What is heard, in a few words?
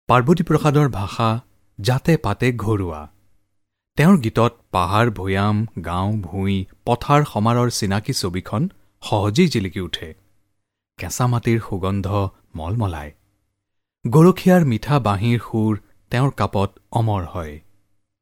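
Assamese, Narration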